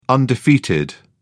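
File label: "undefeated", British English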